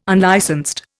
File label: "unlicensed", Indian